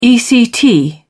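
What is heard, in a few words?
"ect", British English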